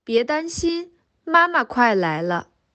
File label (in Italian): Cinese